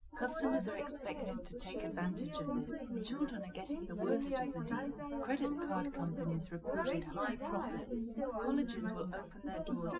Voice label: test female liverec 250ms 5cm src; SiSEC08; SiSEC underdetermined

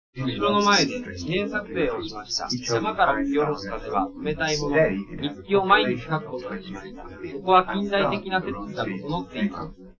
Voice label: male liverec 5cm sim; SASSEC07

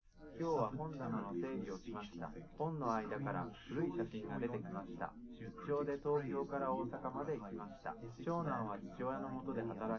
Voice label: male live rec

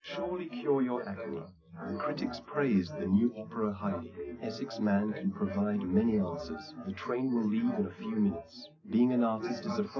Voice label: male, test2_male3_srec_130ms_4cm_sim_2; SiSEC10; underdetermined

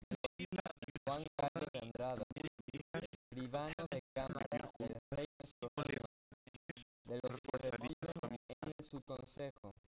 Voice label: male inst sim; SASSEC07

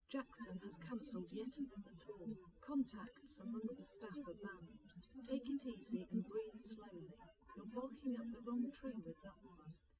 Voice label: female, live rec; SiSEC08; SiSEC underdetermined

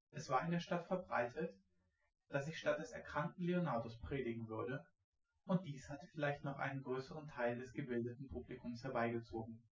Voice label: male synthconv 250ms 5cm

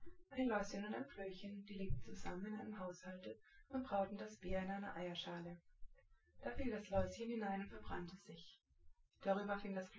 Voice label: female synthconv 250ms 5cm; SiSEC08; SiSEC underdetermined

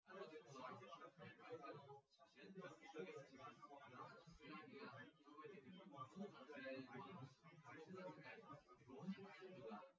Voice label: male; SiSEC08; SiSEC underdetermined

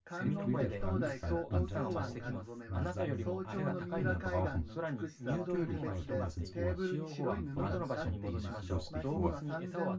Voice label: male synthconv mix

